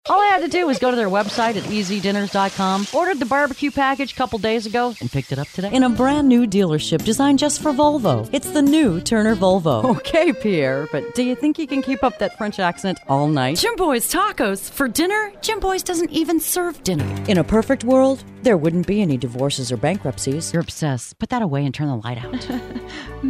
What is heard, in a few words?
Commercial